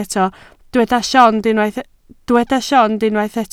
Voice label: "llond", Welsh